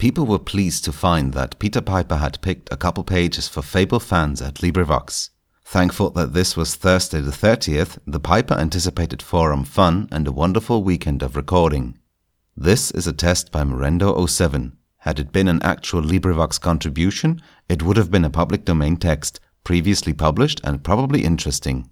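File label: 1 Min with booth freestanding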